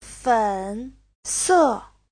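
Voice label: "fěnsè", Mandarin Chinese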